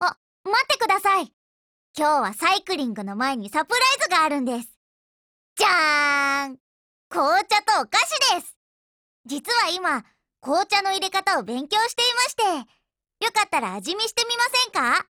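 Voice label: DIALOGUE WEDDING Japanese; BrowningHP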